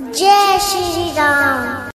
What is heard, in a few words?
jai shree ram notification tone baby voice